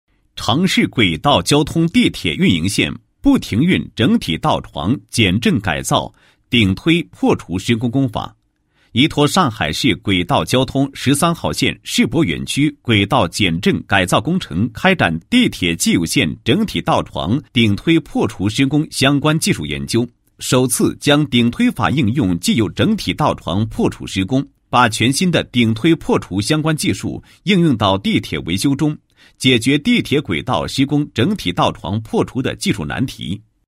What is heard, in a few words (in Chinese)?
男 工程解说; 顶推破除施工工法解说